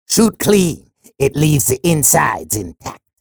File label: chatter positive; sorlag; frag